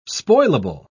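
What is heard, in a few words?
"spoilable", British English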